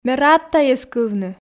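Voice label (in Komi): ме рад тайӧс кывны, Komi, female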